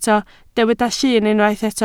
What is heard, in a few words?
"llyn", Welsh